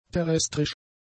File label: "terrestrisch", German; adjectives